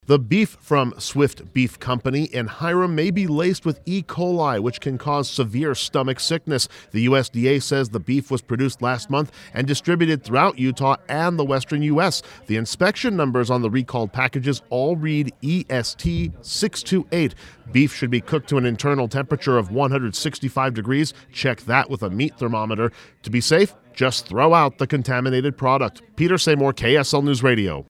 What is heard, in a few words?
BEEF RECALL VOICE PSA